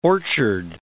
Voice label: "orchard", English